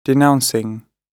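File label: "denouncing", British English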